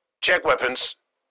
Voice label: Military radio voice command check weapons